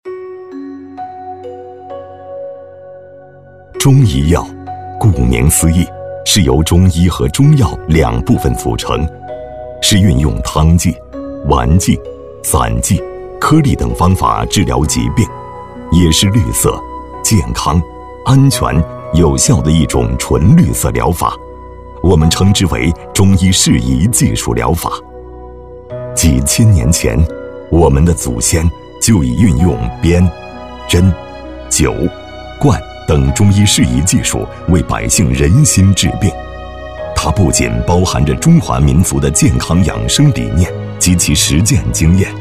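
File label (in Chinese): 男【历史讲述】特色理疗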